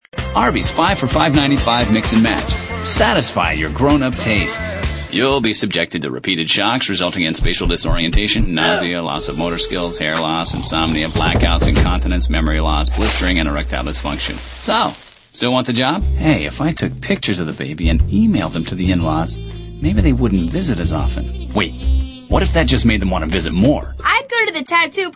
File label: male, sophisticated